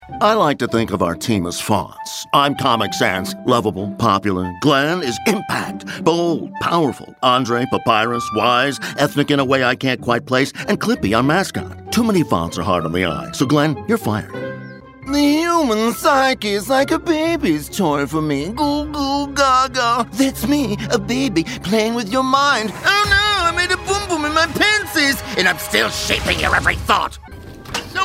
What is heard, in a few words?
Animation